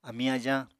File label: "amiñ-ajañ", Apatani